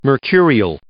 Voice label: "mercurial", English